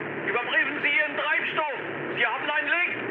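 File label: German tank damaged mood high, speech; War Thunder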